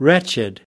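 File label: "wretched", US English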